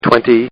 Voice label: Man Says, Talking; Partners In Rhyme